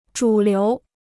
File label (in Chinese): "主流", Chinese